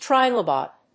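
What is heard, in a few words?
"trilobate", English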